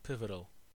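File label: "pivotal", American English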